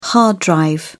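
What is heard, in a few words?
"hard drive", British English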